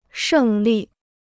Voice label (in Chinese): "胜利", Chinese